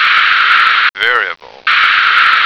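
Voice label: "variable", American English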